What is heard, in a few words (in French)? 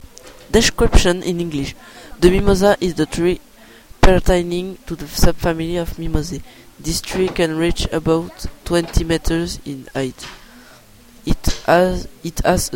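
enregistrement en anglais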